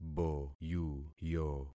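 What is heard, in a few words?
"boyuyo", concatenated syllables; Affixing experiment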